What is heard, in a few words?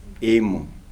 "one", Kibembe